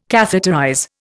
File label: "catheterize", Indian